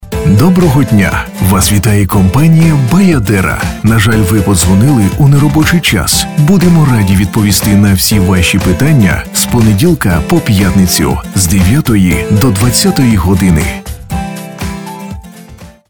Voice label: ukrainian ivr

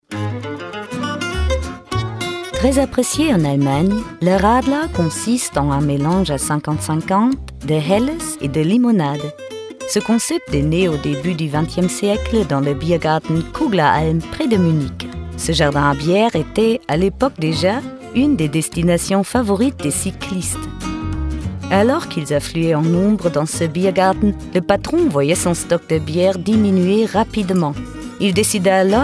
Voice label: french explainer videos; German voice actor

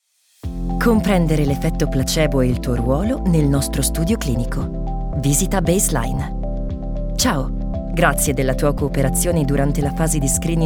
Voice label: italian e-learning, voice actors

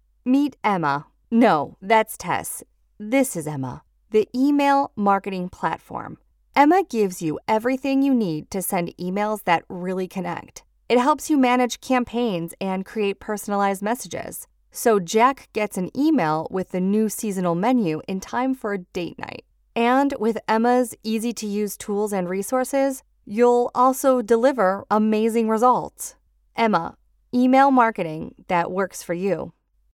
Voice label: english american promos

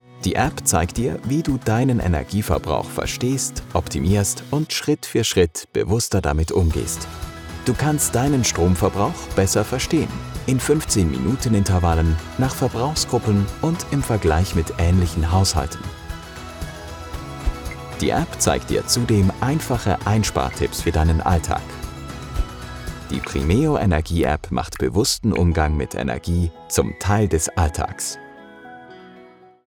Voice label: german switzerland explainer videos; hauptberuflicher Sprecher für Deutsch

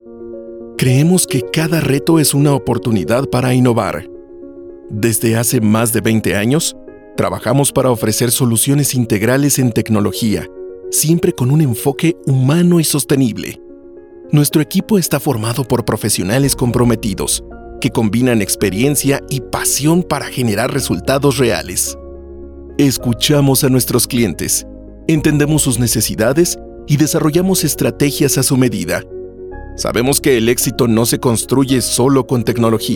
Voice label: Spanish Latin American corporate videos

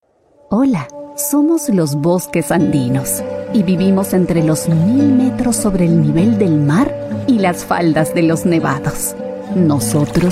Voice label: spanish latin american narration

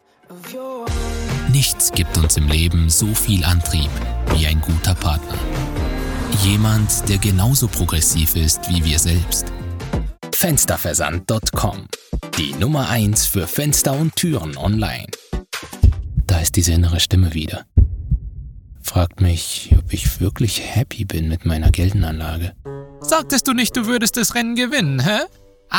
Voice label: german commercial

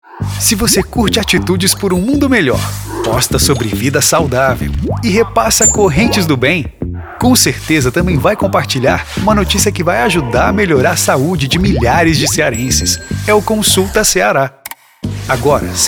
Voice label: portuguese brazilian political ads; voice actors

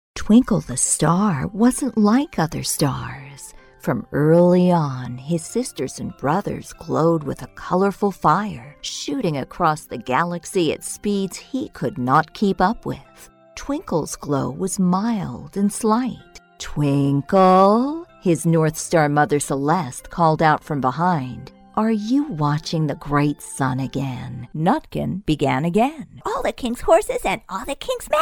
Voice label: english american audiobooks

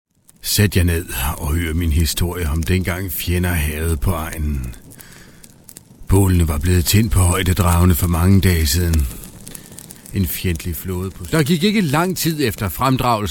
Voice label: danish animation

